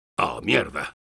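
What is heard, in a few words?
negative vocalization, Spanish; Engineer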